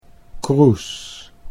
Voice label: "Kroes", Dutch